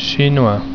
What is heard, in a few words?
"Chinois", French